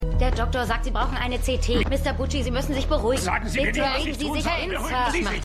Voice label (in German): Krankenschwester, Stimmproben; LO SVU